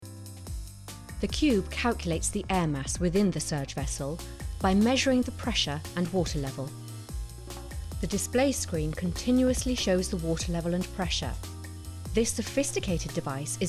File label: Voice Over Sample